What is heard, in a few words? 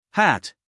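"hat", American English, male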